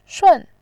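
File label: "shun", Mandarin Chinese, fourth tone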